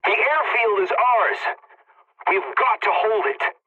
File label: pilot capture ground ally, english; War Thunder